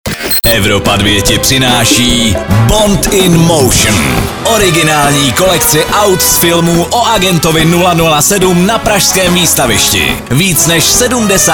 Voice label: promo